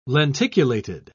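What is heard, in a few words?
"lenticulated", British English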